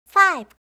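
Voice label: "5", English; eng suuji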